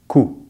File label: "coup", French; Vocales orales en francés; easypronunciation